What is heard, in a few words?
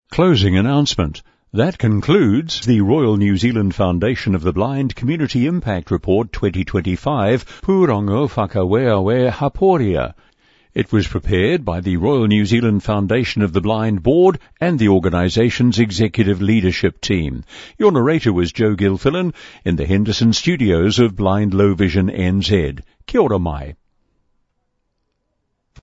Closing announcem; annual reports in audio format